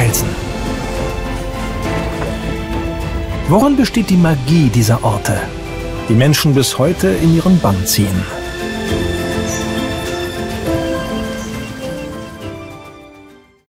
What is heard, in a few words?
male german commercial; ideasonora